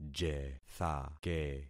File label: "jethake", concat syllables; Affixing experiment